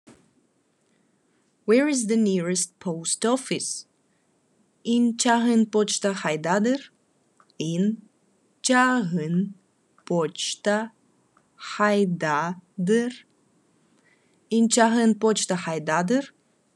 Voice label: Khakas Where is nearest post office

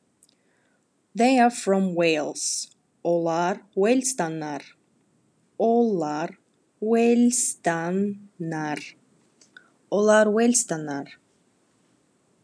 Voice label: Khakas They are from Wales

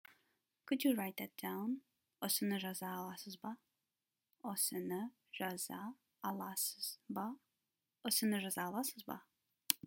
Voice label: Kazakh Could you write that down

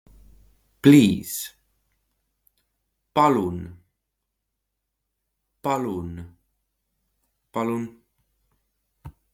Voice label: Please, Estonian; the talking estonian phrasebook

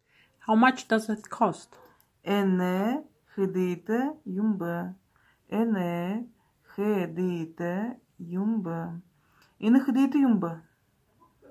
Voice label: How much does that cost, Buryat

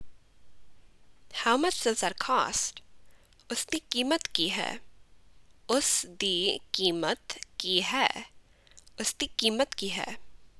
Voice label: "How much does that cost", Punjabi

